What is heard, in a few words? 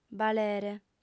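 "balere", female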